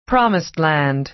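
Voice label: "promised land", American English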